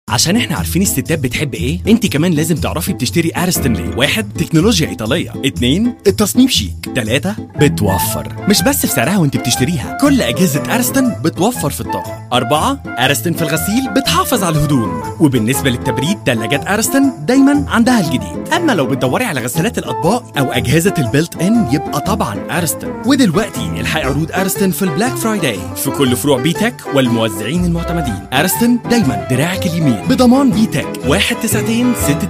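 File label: Arabic Commercial; GoLocalise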